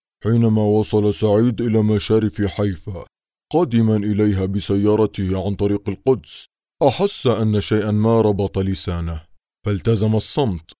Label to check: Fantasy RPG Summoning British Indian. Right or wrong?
Wrong